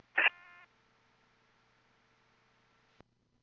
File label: P25, recordings